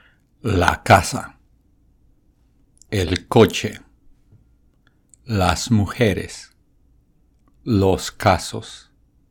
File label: Natural Accent; Phonetics Exam; Mid-Term Exam